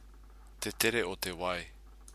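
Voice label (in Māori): "te tere o te wai", Māori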